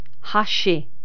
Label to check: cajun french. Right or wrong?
Right